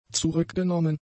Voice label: "zurückgenommen", German; adjectives

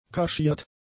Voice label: "kaschiert", German; adjectives

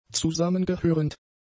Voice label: "zusammengehörend", German; adjectives

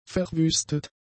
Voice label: "verwüstet", German; adjectives

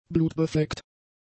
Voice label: "blutbefleckt", German; adjectives

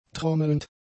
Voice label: "trommelnd", German; adjectives

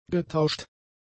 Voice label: "getauscht", german; adjectives

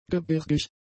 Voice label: "gebirgig", German; adjectives

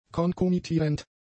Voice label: "konkomitierend", German; adjectives

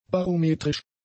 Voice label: "barometrisch", German; adjectives

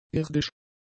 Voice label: "irdisch", german; adjectives

